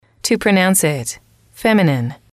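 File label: "to pronounce it", English, female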